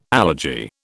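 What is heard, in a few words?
"allergy", British English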